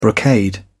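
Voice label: "brocade", British English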